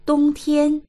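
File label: "dong1tian1", Mandarin Chinese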